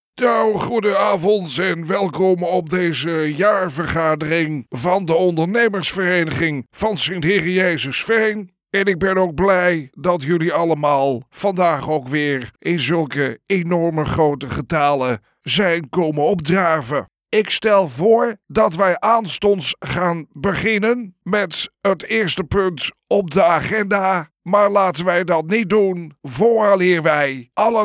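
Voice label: CARTOON CHARACTER Bossy chairman Native Dutch, male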